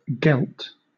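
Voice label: "gelt", British English; pronunciations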